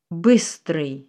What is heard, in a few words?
QUICK, russian